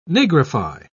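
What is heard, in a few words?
"nigrify", British English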